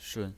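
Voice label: "shun", Mandarin Chinese pinyin, neutral tone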